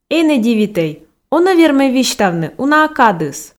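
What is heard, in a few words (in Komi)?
энӧ дивитӧй он-ӧ вермӧй висьтавны уна-ӧ кадыс, Komi, female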